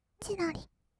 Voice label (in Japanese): "ちらり", kawaii voice; ASMR; 色々なワンショット